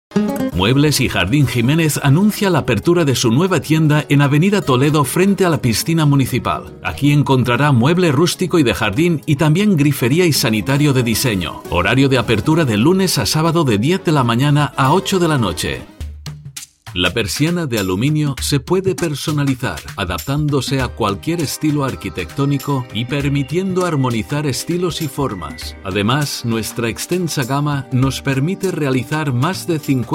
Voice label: Spanish voice samples

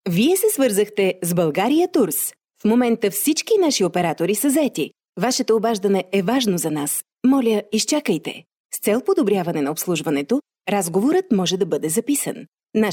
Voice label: Bulgarian Corporate

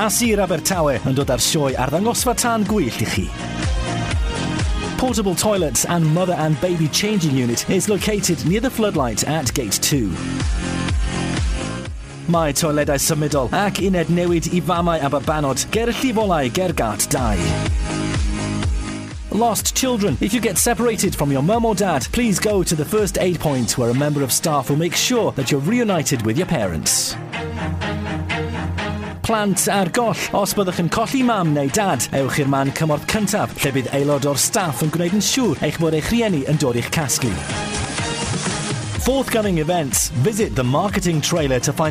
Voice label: Eng. Welsh fireworks

